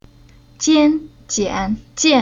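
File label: "Jian", Chinese syllable; Уроки фонетического блока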